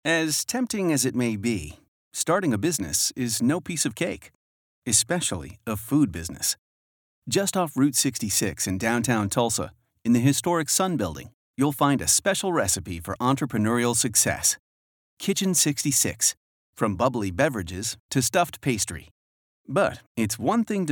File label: Corporate Narration